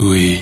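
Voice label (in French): "oui", French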